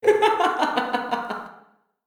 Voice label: Diner Voice